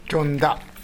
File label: "jonda", minamiyamagata